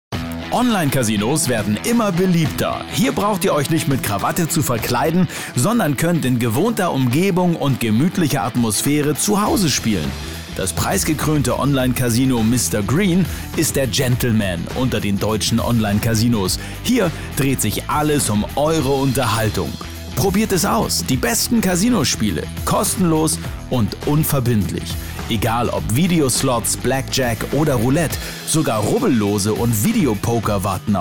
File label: Infomercial; Mr Green; Rock Antenne